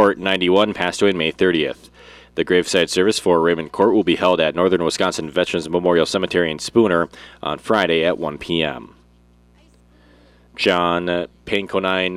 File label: Wednesday Funeral Announcements